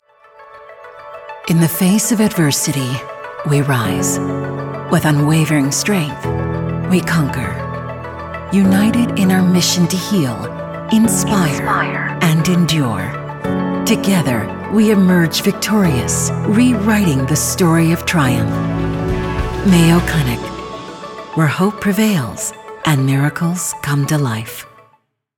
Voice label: Corporate, Friendly Authoritative Helpful, Female Voice; MAYO CLINIC